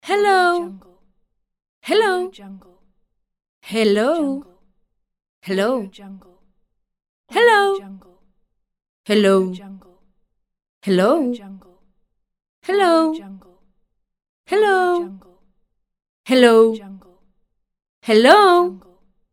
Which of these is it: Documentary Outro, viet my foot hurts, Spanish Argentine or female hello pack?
female hello pack